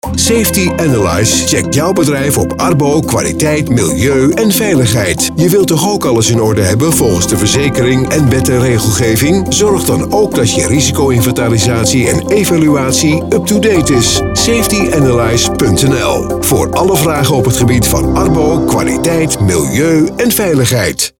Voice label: Commercial Radio; Continu; Commercial Safety Analyse 2019